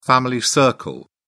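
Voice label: "family circle", English, British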